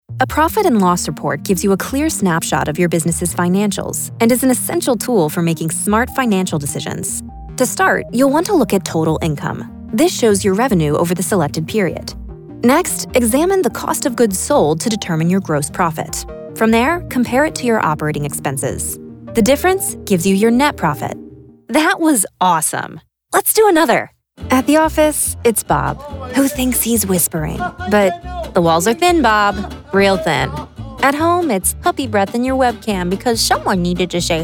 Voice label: eLearning